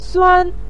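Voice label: "suan" neutral tone, Mandarin Chinese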